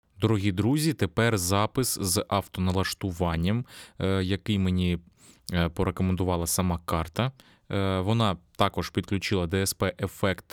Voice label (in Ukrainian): lewitt connect 6 golos avtonalashtuvannja z dsp; Lewitt Connect 6 - Голос автоналаштування з DSP; Lewitt Connect 6 – аудіоінтерфейс за 300 $, з можливістю запису в двоканальному режимі